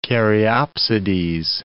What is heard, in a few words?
"caryopsides", English